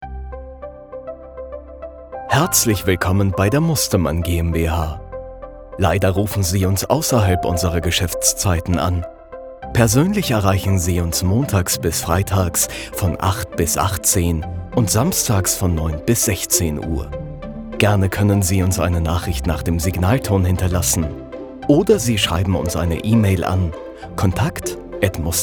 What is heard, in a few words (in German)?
Sprechprobe ANRUFBEANTWORTER DEUTSCH ENGLISCH